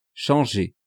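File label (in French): "changer", French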